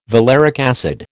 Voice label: "valeric acid", American

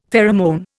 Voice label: "pheromone", Indian; Dictionary

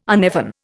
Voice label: "uneven", Indian

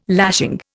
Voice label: "lashing", Indian

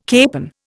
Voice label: "capon", Indian

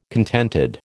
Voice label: "contented", American

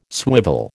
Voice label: "swivel", American

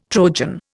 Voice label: "Trojan", Indian

